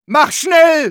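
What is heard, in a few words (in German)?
go, German; Medic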